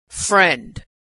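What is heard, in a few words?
"friend", American English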